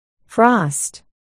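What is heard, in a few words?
"frost", spoken word, articulation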